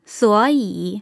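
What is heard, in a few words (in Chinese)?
"suǒyǐ", Mandarin; tone changes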